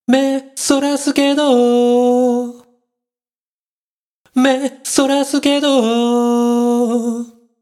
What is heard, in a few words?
voice commentary